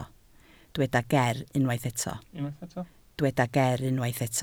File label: "ger", Welsh